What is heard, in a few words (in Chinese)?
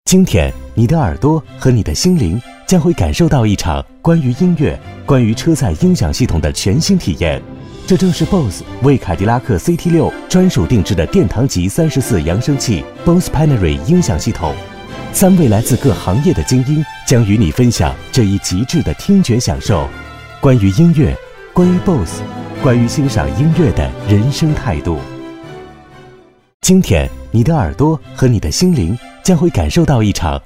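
男S347 国语 男声 广告-科技品味 大气浑厚磁性 沉稳; Bose汽车音响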